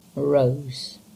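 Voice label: "Merrose", English; place-names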